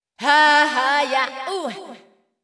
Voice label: VOICE GRL HOUSE 125 A